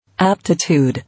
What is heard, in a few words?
"Aptitude", English